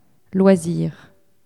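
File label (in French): "loisir", French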